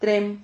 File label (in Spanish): "trem", Silabas